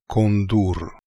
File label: "Kondoor", pronounciations; Fourth Way; Beelzebub